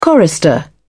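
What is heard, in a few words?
"chorister", British English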